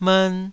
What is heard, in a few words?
"mern2", Taiwanese syllable